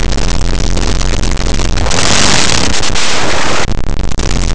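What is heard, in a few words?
"lola", Spanish; laboratori fonetica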